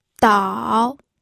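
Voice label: "dao", pinyin, third tone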